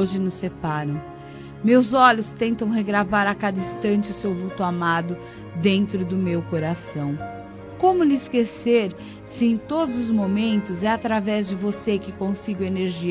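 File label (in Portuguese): ROMANTIC AMOR PROIBIDO FEM, Telemensagens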